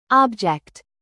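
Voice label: "present", noun, British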